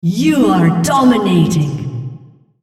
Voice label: female On Killing Spree